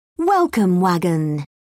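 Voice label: "welcome wagon", British English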